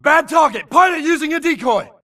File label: grunt react Holopilot Decoy; imc; Titanfall 2